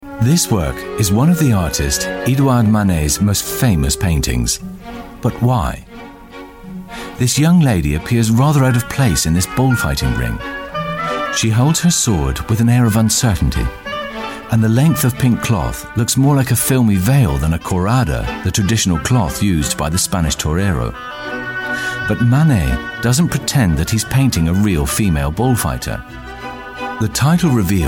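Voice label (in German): UK English Kommentar Freundlich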